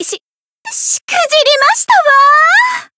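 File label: Voice win barely, Japanese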